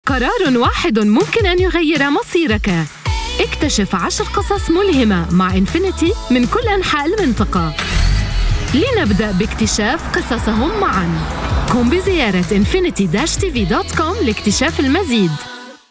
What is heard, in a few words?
Modern Standard Arabic Promo; Infiniti 30 Years